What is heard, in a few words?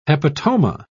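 "hepatoma", British English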